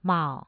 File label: mao3, Mandarin tone